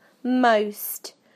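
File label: "most", British English; PictureDictionary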